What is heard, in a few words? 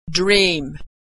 verb "dream", pronunciation